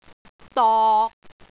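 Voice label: "dok6", Cantonese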